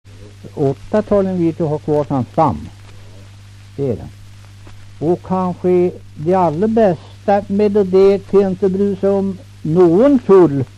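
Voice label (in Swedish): Kräklinge Närke, Svenska sagor, dialekter; Folkminnen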